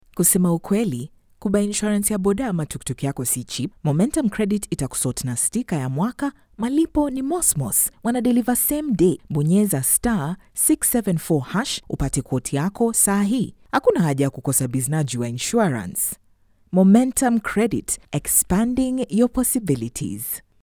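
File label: Swahili Female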